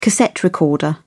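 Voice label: "cassette recorder", British English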